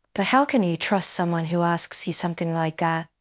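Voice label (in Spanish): Voice Acting es F, Spanish, female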